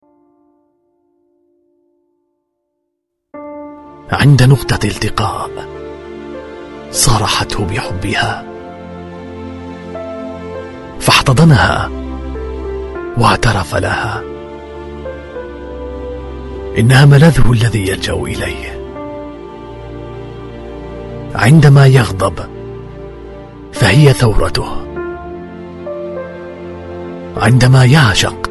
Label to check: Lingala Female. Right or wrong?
Wrong